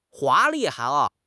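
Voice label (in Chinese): "华丽", Chinese; HSK; HSK6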